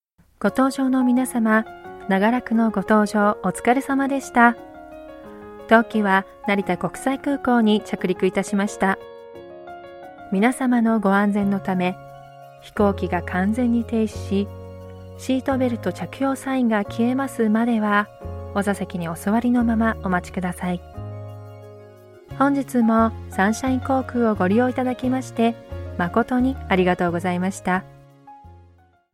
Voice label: Japanese Public Announcement On Board, Japanese Female